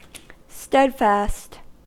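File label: "steadfast", US English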